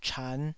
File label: "chan5", taiwanese